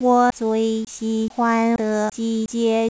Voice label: concat, Direct concatenation of recorded syllables, Mandarin; Mandarin Speech